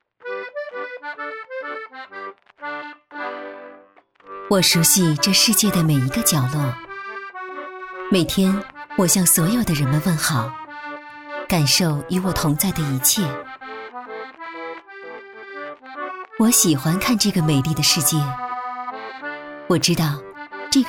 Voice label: 女 电视台声 知性随意, 国语女声配音; 云南卫视

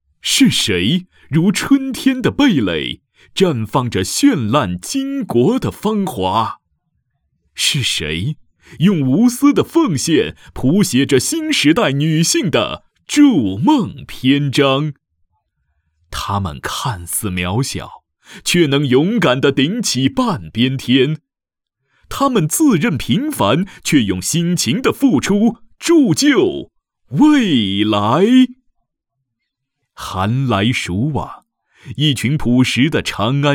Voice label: 激情 大气 高亢 女职工; 长行女职工

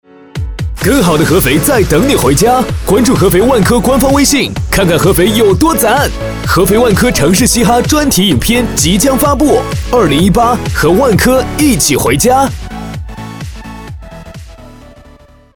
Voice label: 男,广告配音,时尚活力; 万科

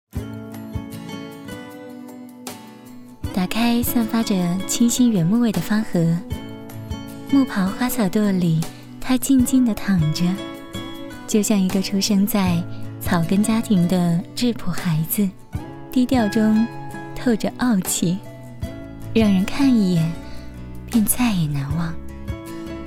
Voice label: 女, 旁白, 甜美自然; 方盒

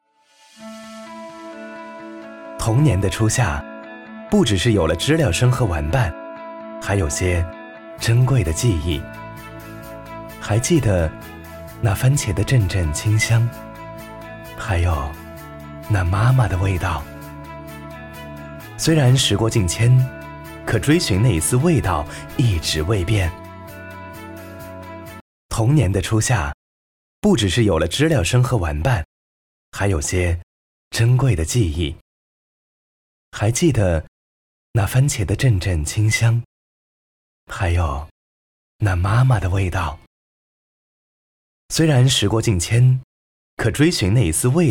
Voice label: 旁白, 亲和

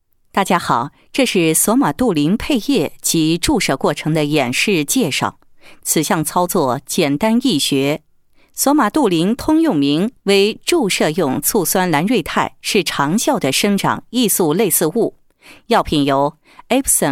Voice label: 女, 解说, 配液及注射过程的演示介绍配音; 索马杜林

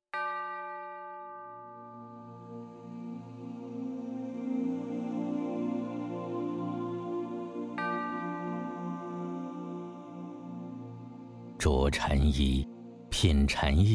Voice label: 男, 宣传片, 舒缓, 禅意心灵之旅

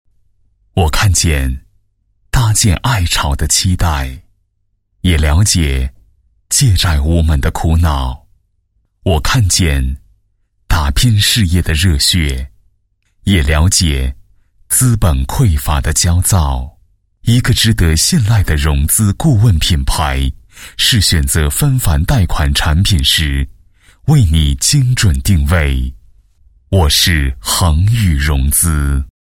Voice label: 男 企业, 国语男声配音; 恒宇融资

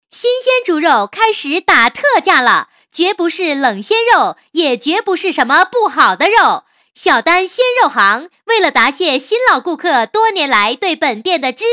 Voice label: 女 促销, 国语女声配音; 小丹肉行

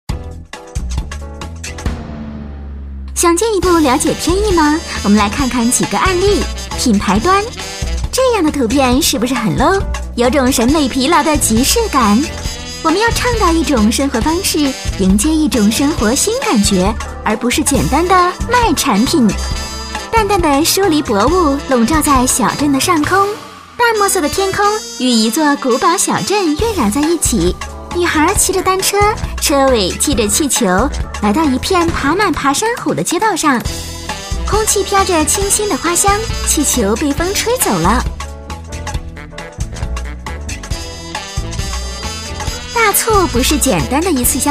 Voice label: 女 品牌 轻松活泼; 国语女声配音

